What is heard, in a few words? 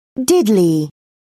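"diddly", British English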